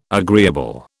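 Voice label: "agreeable", British English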